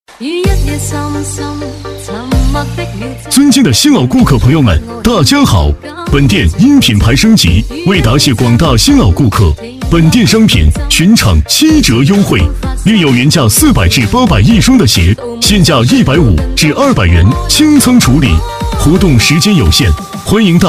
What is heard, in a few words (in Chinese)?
激情促销男; 品牌鞋店升级清仓处理